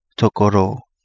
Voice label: "Togo Loo", Kapingamarangi

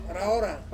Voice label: "raora", Ansus; long time

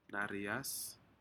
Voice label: "nariyas", Aneityum; shellfish

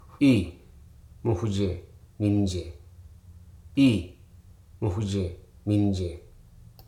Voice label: "he", Hruso

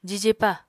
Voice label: "jijepa", Apatani

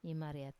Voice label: "imariat", Wamesa; I am gentle

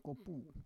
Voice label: "kopu", Roon

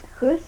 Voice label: "five", tillamook

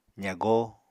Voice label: "zagó", Apatani

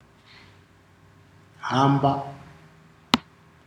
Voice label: "walk", ndau

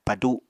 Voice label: "padu", Apatani

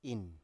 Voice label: "in", Biak

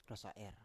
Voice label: "rosa7er", Biak

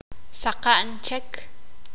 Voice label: sakapulteko; otro poco

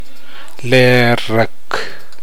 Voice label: breadfruit season, Namolukese

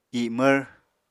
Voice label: "imvr", Apatani

